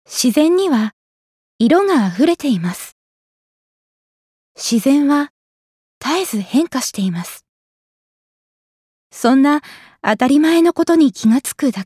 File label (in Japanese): 提案型CM